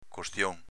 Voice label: "costion", dialetto veneto

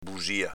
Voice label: "busia", dialetto veneto

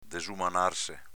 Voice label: "desumanarse", dialetto veneto

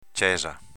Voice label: "cesa", dialetto veneto; s.f. chiesa

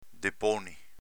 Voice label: "deponi", dialetto veneto; s.m. deposito, sedimento

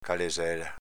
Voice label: "calesela", dialetto veneto; s.f. stradina, vicoletto

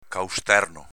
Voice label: "causterno", dialetto veneto; s.m. persona scostante

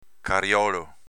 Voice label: "cariolo", dialetto veneto

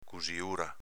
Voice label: "cusiura", dialetto veneto; s.f. cucitura; rammendo

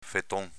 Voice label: "feton", dialetto veneto; s.m. piedone

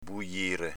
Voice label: "bujire", dialetto veneto

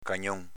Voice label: "cagnon", dialetto veneto

